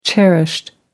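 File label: "cherished", British English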